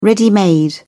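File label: "ready-made", British English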